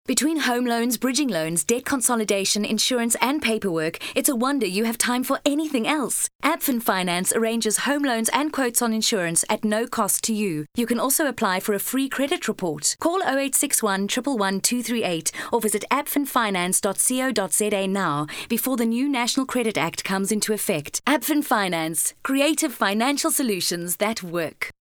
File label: radio ad